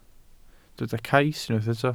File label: "cais", welsh